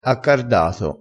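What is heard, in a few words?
"accardato", Italian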